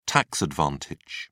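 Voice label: "tax advantage", British English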